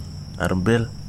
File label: "tongue", Wahgi; lae unitech